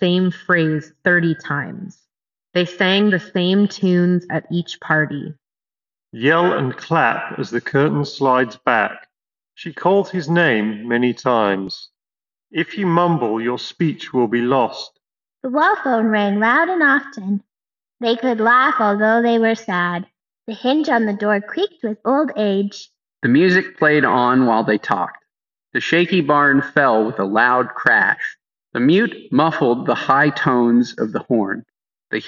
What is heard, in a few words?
Reverb microphone sample; Nothing Ear